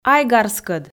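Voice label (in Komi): "айгарскӧд", Komi, female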